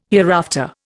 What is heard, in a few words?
"hereafter", Indian